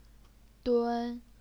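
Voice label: "dun", first tone, Chinese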